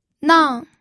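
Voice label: "nang", fourth tone, pinyin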